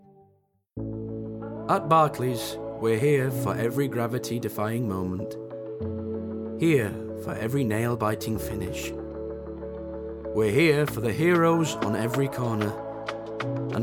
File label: Ad; Barclays